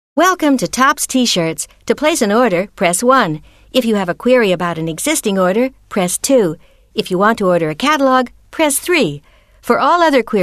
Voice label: tops t-shirts, voice demo